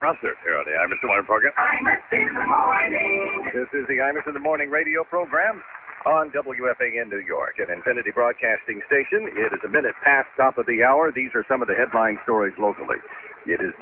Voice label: radio; 660 wfan